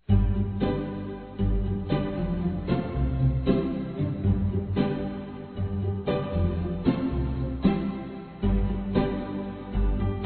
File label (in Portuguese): Aniversário Cliente Masculino, Telemensagens; Empresarial; Telemensagens 2017